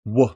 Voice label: phoneme w, American; American IPA phonic